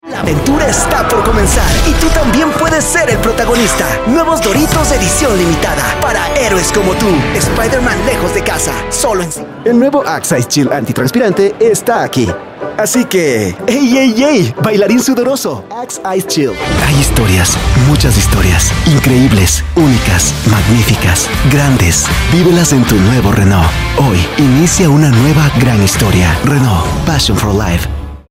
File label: Spanish Latin